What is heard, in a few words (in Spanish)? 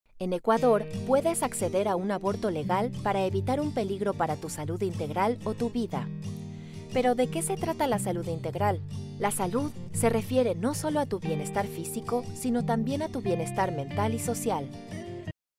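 Explainer Español Latam; Ecuador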